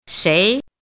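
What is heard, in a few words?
"shei2" Mandarin Chinese, rising tone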